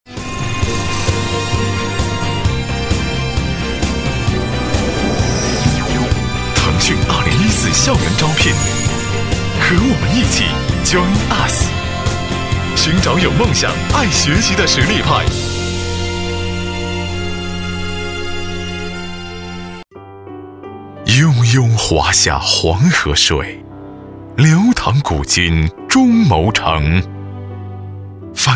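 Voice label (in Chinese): 国语 男声 专题片 大气浑厚 大气浑厚磁性 沉稳 科技感; 田园新梦美丽中牟-政府专题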